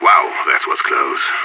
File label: radio bot end close, player vo; idf